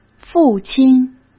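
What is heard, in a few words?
"父亲" Mandarin Chinese; chinese flashcards; hsk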